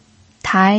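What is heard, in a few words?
"tai", Mandarin Chinese pinyin, second tone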